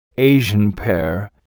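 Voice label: "Asian pear", Vietnamese vocabulary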